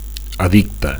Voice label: "addicte", Catalan; (m / f) addict; addicted